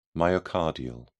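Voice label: "myocardial", British English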